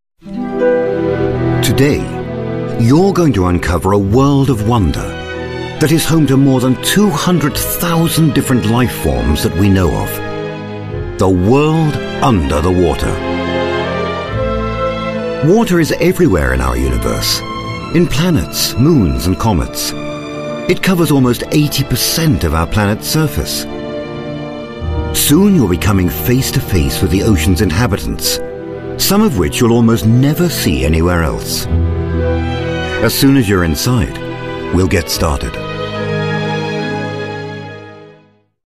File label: UK English Documentary, Male; Waterworld